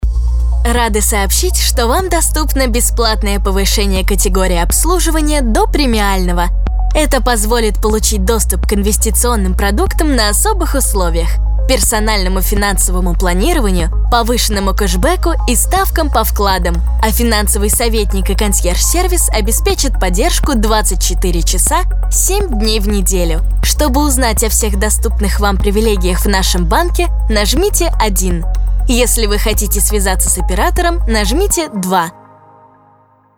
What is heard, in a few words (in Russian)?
Ролик Автоответчик